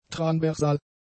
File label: "tranversal", German; adjectives